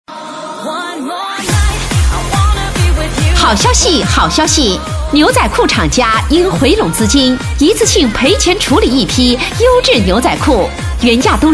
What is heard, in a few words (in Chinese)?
促销牛仔裤